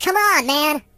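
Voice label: "Hurry", Russian; Surfer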